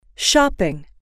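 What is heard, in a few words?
"shopping", English, female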